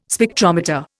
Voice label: "Spectrometer", Indian